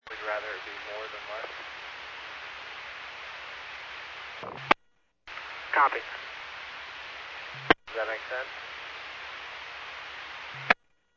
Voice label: Medevac, scanner; NativeAirAmb